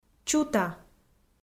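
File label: "чута", Komi, female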